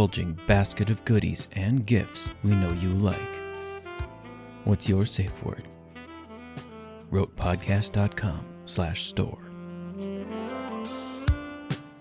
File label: Commercial Podcast Comedic